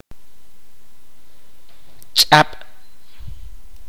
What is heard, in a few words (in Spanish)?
"flecha", kaqchikel